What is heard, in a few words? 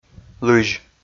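"лызь", Komi, male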